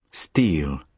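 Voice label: "steal", British English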